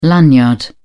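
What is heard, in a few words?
"lanyard", British English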